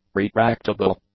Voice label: "retractable", English; adjectives